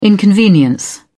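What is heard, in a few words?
"inconvenience", English, British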